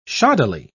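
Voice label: "shoddily", British English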